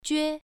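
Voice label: "jue", Mandarin Chinese, first tone, pronunciation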